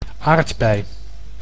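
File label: "aardbei", Dutch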